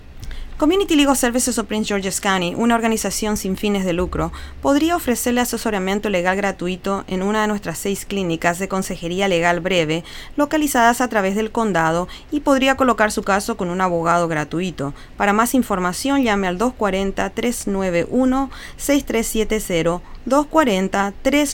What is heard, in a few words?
Spanish Ad; fiesta radio station 1390am